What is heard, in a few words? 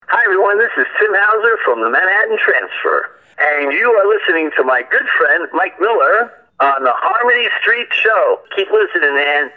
promo